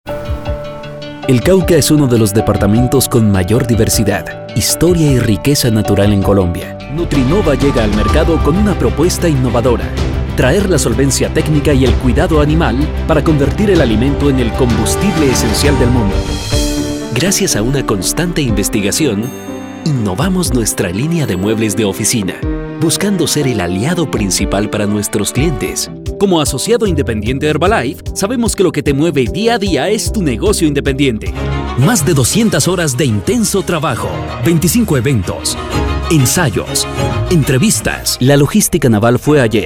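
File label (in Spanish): Locución Corporativa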